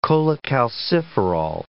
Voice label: "cholecalciferol", English